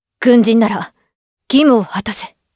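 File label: vox dialogue, voices, Japanese; kingsraid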